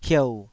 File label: "hieu3", Taiwanese syllable